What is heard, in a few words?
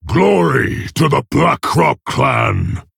Male Orc Hero Power; Varok; Uther